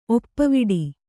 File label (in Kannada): "ಒಪ್ಪುವಡೆ", Kannada